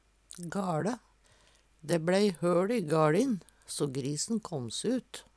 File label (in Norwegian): "gaLe", Numedalsmål; Dæ bLei høL i gaLin so grisen kåm se ut.